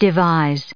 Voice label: "devise", en us